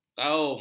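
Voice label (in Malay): "kaum", Malay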